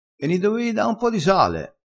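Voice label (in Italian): "sale", vocabolario dialettale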